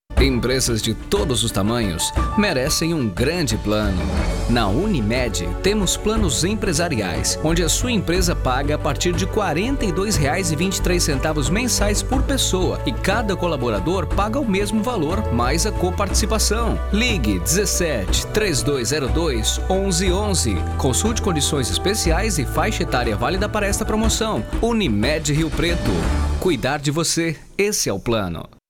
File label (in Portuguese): Institucional